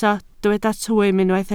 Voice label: "twym", Welsh